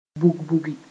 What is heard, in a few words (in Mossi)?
"bug-bugi", moore